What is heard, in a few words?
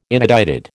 "inedited", American